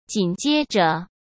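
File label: "紧接着", Mandarin Chinese; hsk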